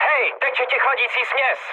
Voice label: damaged water, speech cze, mood med; War Thunder